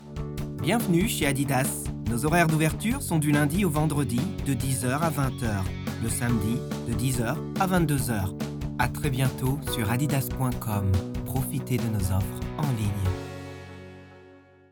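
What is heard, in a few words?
French, male, commercial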